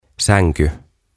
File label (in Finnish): "Sänky", Ääntämisohjeet suomeksi; OTAVA KONSERNI; tuhatsanaa